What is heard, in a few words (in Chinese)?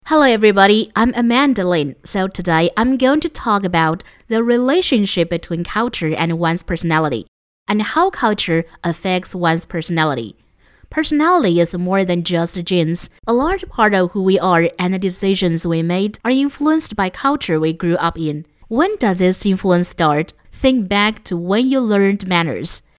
英女 英语讲述 自然演讲